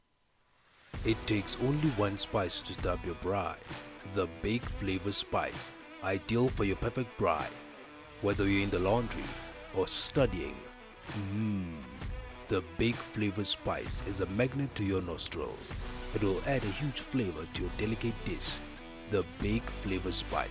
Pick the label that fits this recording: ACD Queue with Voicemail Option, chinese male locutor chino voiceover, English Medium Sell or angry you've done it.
English Medium Sell